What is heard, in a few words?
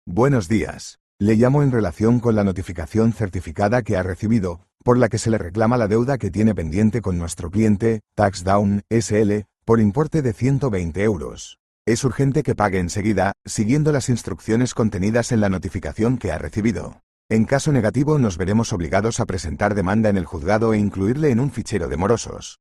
audio call